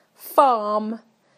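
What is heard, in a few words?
"farm", British English; PictureDictionary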